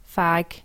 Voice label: "fag", english, Ireland